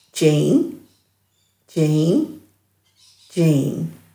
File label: "djiinj", Dhurga